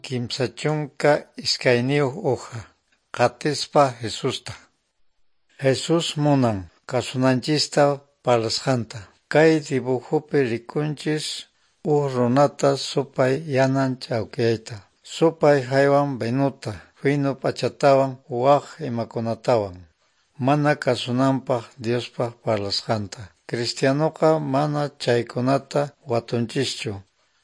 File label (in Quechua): good news quechua norte de bolivia apolo